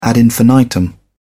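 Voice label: "ad infinitum", English, British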